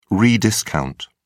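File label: "rediscount", English, British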